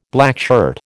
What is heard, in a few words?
"blackshirt", American